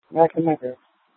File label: Light Rail Ops, scanner; Light Rail Ops 1